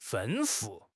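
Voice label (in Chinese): "吩咐", Chinese; HSK6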